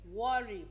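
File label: "worry", Nigerian Pidgin, female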